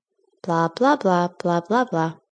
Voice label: Woman Blah Blah, A woman says blah blah blah; Talking; Voice

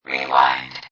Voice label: Robot Voice "Rewind"; Lynne Music

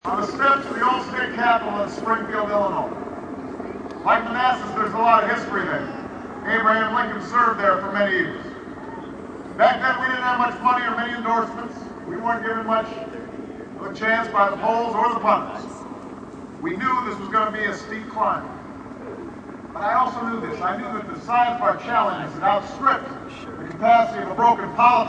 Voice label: Speech, campaign speech; Candidate Obama campaign speech; Obama Rally Speech

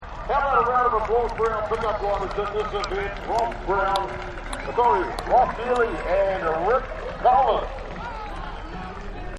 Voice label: Rodeo Announcer; Rodeos; Backgrounds

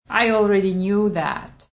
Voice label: Already Knew That, Female; Talking Sound Effects

